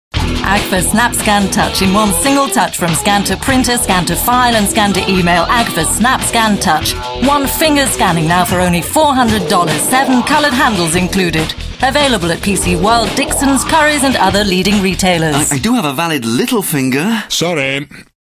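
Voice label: English, female, commercial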